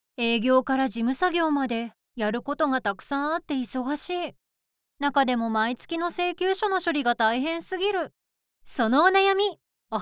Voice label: female